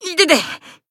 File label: Voice damaged, jp